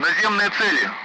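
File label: Speech Sound Russian Common Allied Flight; ground targets; DCS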